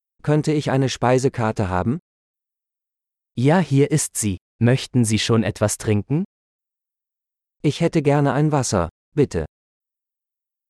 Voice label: At the Restaurant Part 1 - Dialogue, German; how-many-are-in-your-party